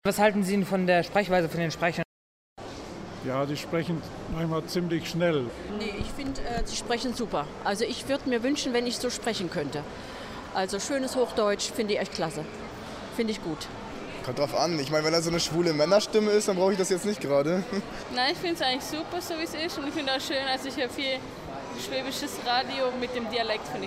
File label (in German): Umfrage Radiostimmen